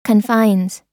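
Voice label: "confines", US English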